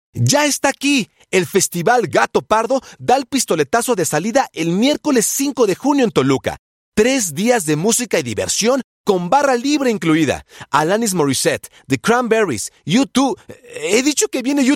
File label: spanish latin american promos